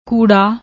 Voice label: "koodaa", Punjabi; ਕੂੜਾ